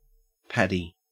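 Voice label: "paddy", Australian English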